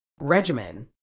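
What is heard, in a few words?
"regimen", medical English, British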